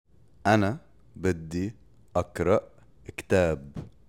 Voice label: Spoken Arabic phrases